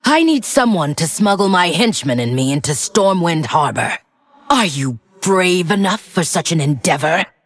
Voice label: Female Undead

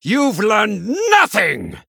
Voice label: Male Human Attack

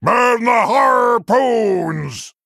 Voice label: Male Tuskarr Play

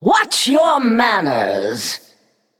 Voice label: Female Naga TRIGGER FORKED LIGHTNING

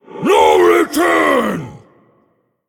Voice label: Male Undead Emote Attack; HERO

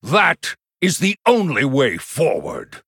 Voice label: Male Human

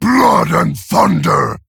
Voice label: Male Orc; Thrall; Garrosh

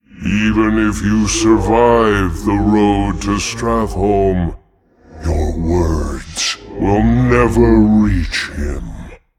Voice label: Male Demon

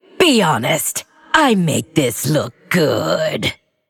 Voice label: Female Undead, Emote Response

